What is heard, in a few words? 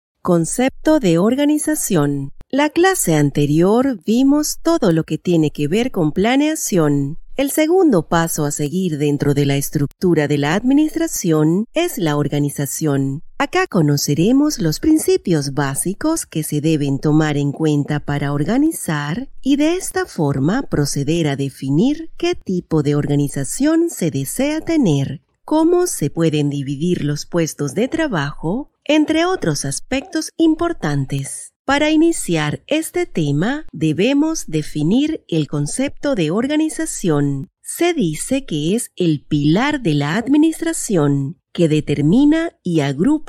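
Spanish, female, elearning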